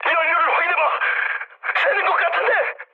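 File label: Korean tank damaged mood high; speech; War Thunder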